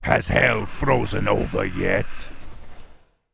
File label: Death Knight Angry; warcraft3